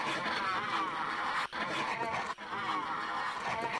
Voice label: walkie talkie talking not held